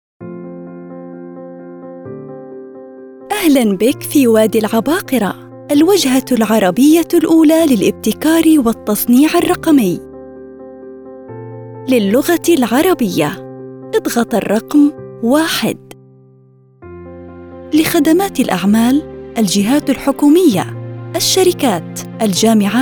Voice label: Arabic IVR

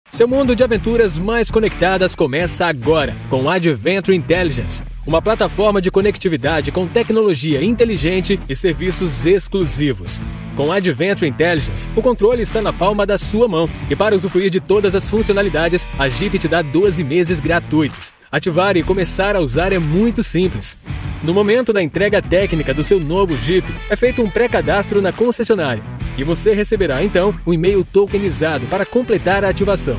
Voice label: portuguese brazilian automotive

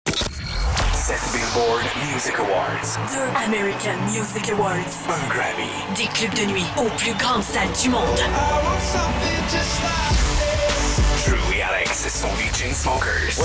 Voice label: french canadian promos

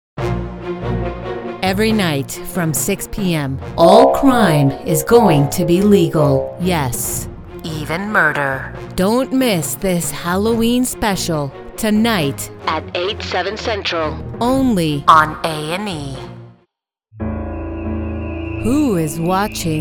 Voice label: spanish latin american neutral cartoon animation

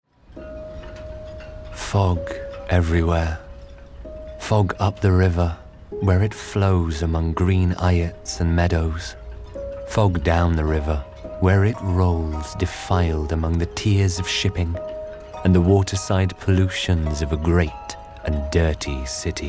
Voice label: english british audiobooks

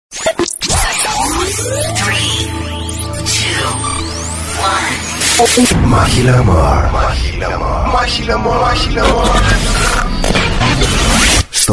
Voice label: greek commercial; Greece

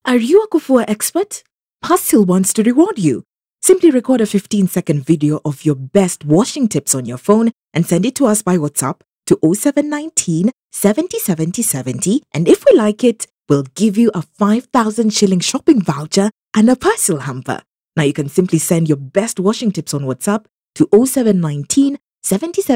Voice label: english african promos

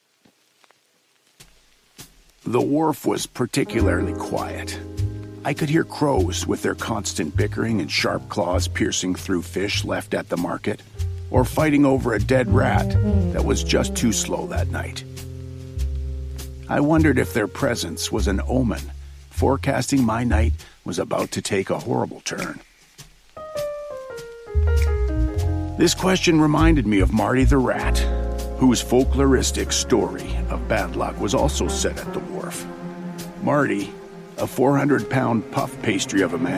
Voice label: english canadian

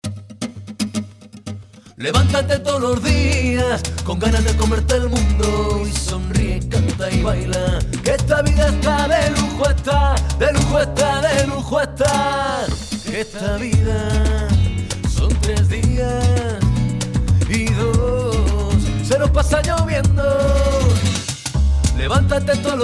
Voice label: spanish promos

